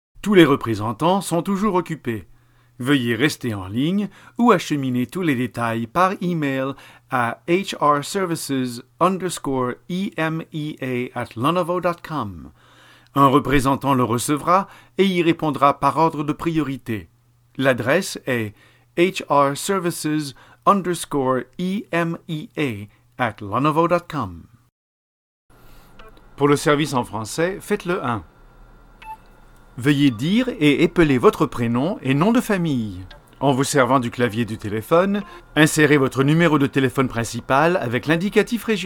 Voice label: male French Canadian IVR compilation